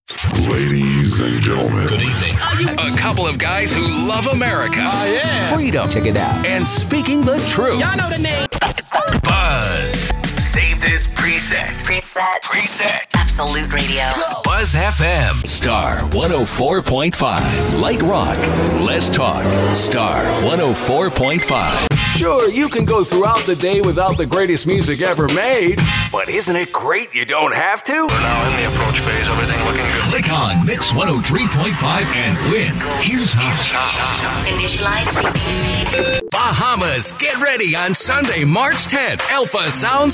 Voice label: Male 40's-50's, Versatile, powerful, rich voice...both credible and approachable.; Imaging Demo